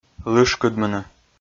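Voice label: "лышкыдмыны" Komi, male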